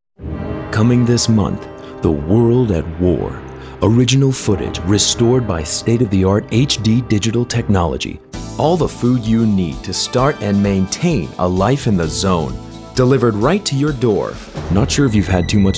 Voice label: Commercial